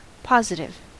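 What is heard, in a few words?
"positive" English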